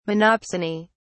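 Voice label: "monopsony", British English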